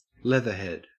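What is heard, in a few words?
"leathered", Australian English; pronunciations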